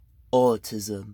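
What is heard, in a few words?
"autism", British English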